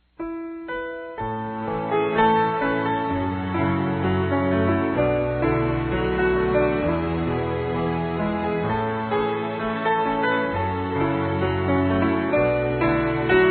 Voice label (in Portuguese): Aniv. de Nora Distante, F